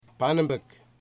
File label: "foot", Wamut